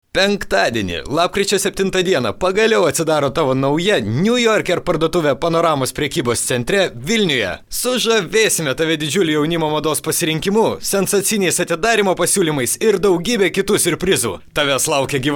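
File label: Lithuanian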